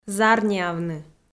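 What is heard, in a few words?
"зарниавны", Komi, female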